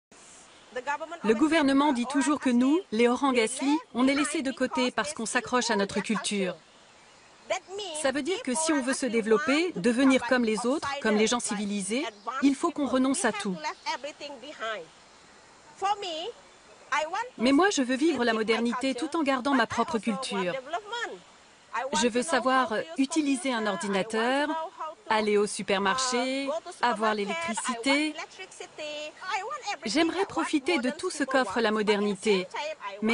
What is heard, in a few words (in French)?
Voice over documentaire; Voyage d'un chef